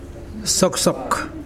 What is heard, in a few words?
thorn, Mokilese